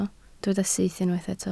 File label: "syth", Welsh